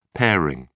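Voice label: "pairing", British English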